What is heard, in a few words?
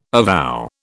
"avow", British